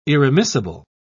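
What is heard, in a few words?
"irremissible", British English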